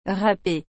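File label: "rapee", French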